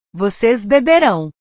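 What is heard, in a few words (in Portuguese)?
"vocês beberão", Portuguese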